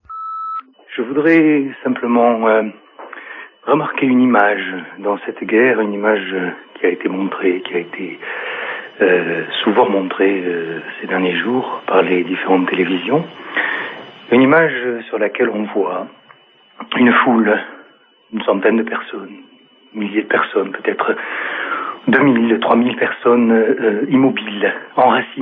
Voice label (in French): Irak soldats US repondeur